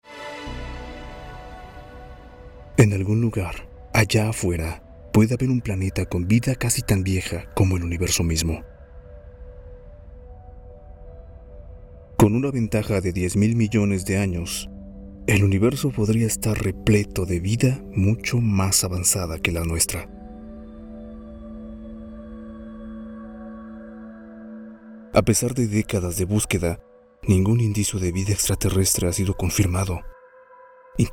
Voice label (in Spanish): docu